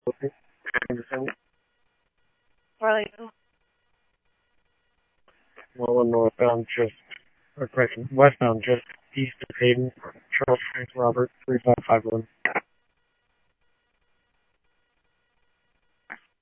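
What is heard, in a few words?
Police radio; ScottsdalePolice; H-Scottsdale; SCTSDLE PD - PD2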